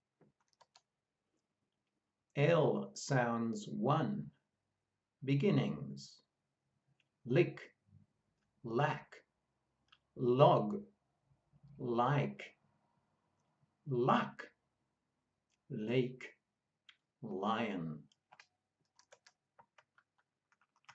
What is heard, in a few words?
L sounds, pronunciation of australian english